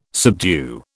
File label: "subdue", British